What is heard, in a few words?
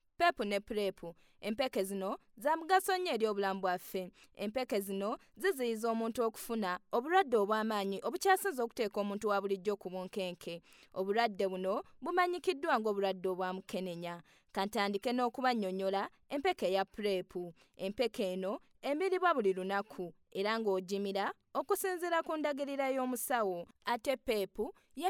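Luganda General; GoLocalise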